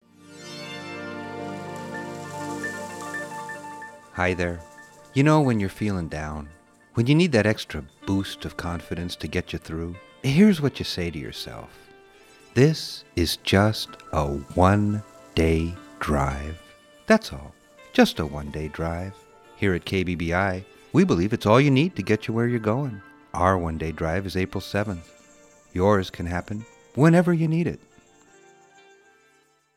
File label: promo; KBBI